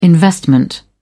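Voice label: "investment", British English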